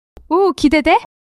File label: excitement, monologue